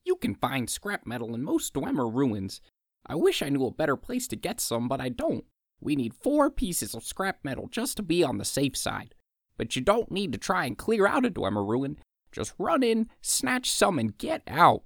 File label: wood elf, wood elf voice auditions